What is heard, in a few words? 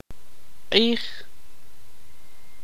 tzutujil